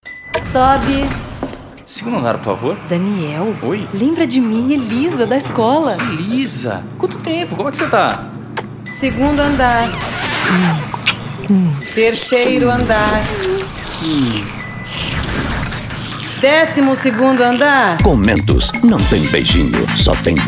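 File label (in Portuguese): Locução Personagem; Mentos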